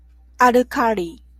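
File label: "alkali", Japanese, female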